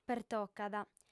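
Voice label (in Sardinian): "pertocat", female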